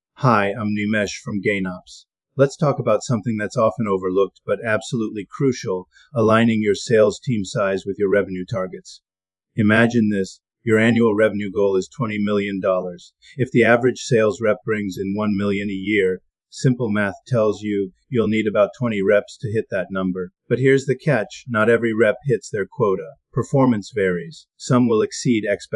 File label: narrative script